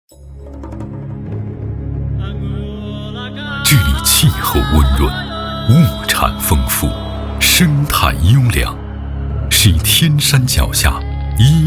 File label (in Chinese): 男 专题 形象 片 民族大气; 可克达拉